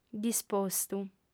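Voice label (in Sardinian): "dispostu", female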